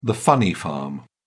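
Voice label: "funny farm", British English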